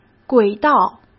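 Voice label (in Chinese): "轨道", Mandarin Chinese; chinese flashcards; hsk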